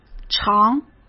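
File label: "常", Mandarin Chinese; chinese-flashcards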